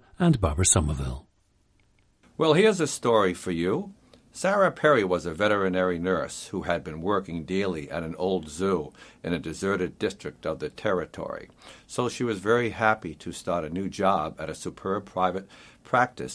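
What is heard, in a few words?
rhodeisland, International Dialects of English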